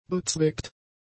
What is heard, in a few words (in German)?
"bezweckt", German; adjectives